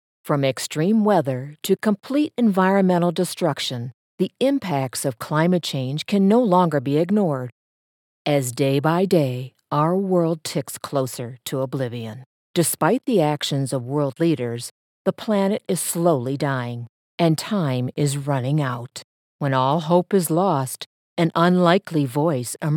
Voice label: english american documentaries